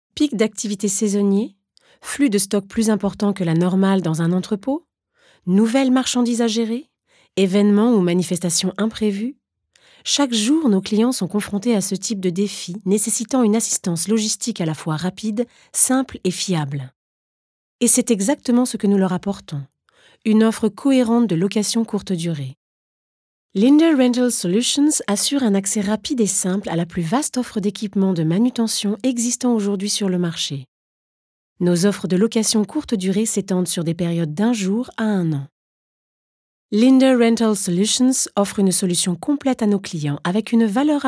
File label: eng elearning; linde